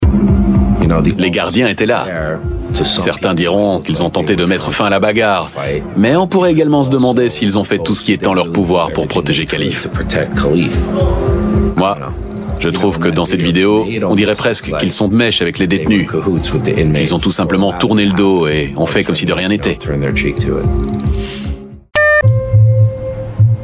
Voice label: french voice over male documentary